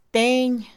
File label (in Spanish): escalera, Mixe, Mujer; Casa; INALI